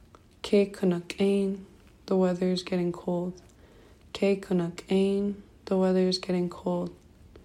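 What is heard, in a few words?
the weathers getting cold, Tlingit; present; winter weather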